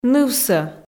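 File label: "нывсӧ", Komi, female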